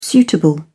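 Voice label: "suitable", British English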